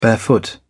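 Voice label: "barefoot", British English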